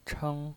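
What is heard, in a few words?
"cheng", Mandarin Chinese pinyin voice, first tone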